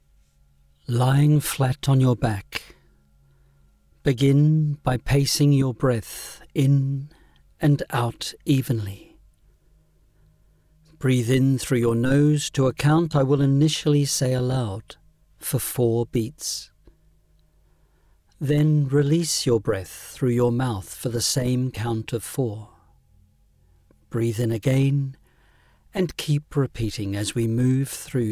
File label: meditation